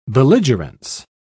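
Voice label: "belligerence", British English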